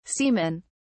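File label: "seamen", British English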